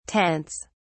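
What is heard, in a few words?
"tents", British English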